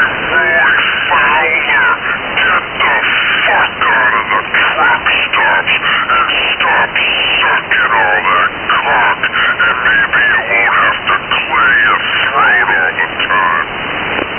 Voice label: slow voice; Slow Voice Guy